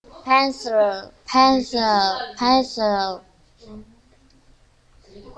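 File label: "pencil", spoken word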